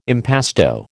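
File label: "impasto", American English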